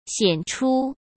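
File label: "显出", Mandarin Chinese; chinese flashcards; hsk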